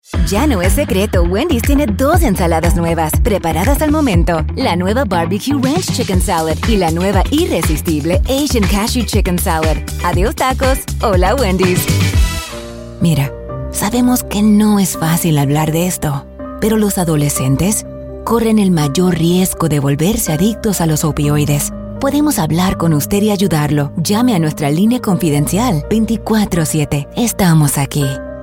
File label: Spanish Commercial